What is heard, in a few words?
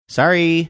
saying sorry funny